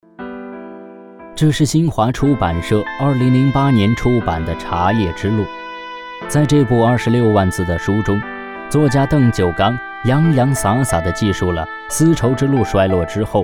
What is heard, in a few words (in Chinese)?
男 记录片; 丝绸之路